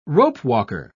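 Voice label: "ropewalker", British English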